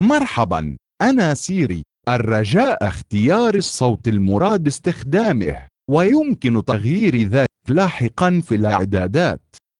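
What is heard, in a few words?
Arabic, Saudi Arabia; iOS 26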